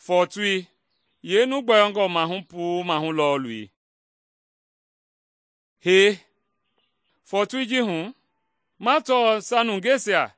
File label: mende bsl nt drama; good news mende kpa